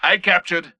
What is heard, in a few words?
friendly Capped A; imc; blisk; Titanfall 2